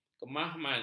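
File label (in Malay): "kemamam", Malay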